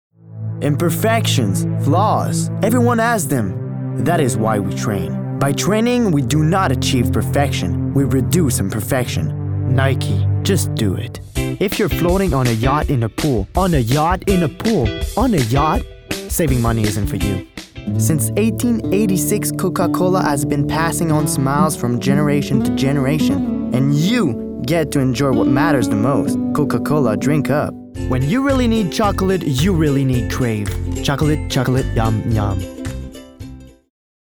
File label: Commercial; Reel